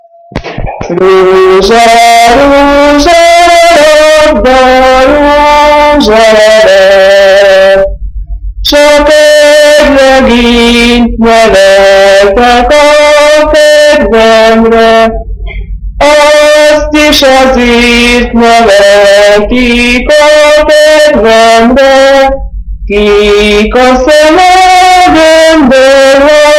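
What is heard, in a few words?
dialect collection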